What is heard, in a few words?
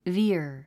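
"veer", English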